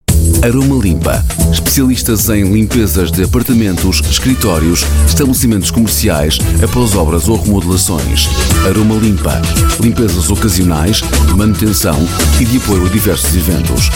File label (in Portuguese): spot radio; Aromalimpa; aromalimpa na midia